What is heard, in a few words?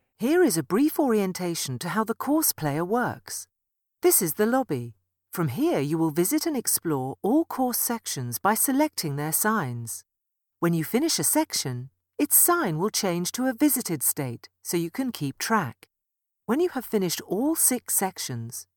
English Neutral